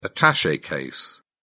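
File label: "attache case", English, British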